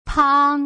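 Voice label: "pang", Mandarin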